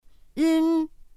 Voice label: "ün", pinyin; pinyin2; pinyinji